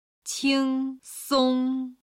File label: "qingsong", Chinese